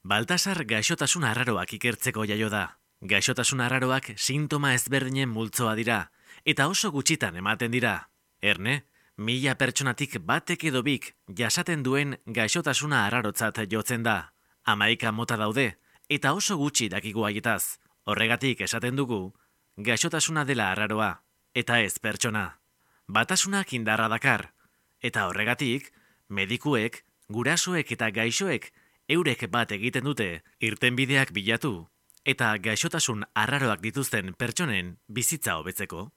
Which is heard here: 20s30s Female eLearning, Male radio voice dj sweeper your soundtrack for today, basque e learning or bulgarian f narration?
basque e learning